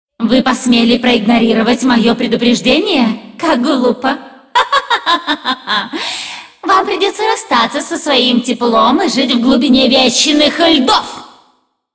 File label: cinematic voice, ru; lineage2